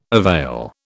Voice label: "avail", British

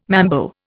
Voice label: "mambo", Indian

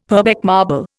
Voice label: "Purbeck marble", Indian; Dictionary

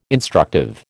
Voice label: "instructive", American

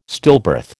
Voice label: "stillbirth", American

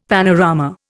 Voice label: "panorama", Indian; Dictionary

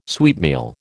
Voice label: "sweetmeal", American

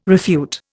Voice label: "refute", Indian

